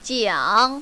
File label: "jiang3", mandarin, female